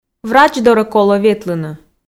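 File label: "врач дорӧ колӧ ветлыны", Komi, female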